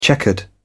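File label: "checkered", British English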